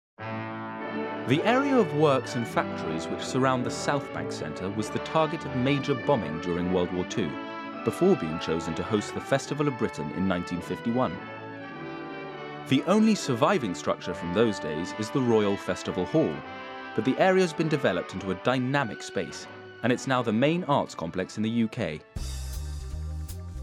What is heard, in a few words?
Narration; Nat Theatre; NATIONAL THEATRE NARRATION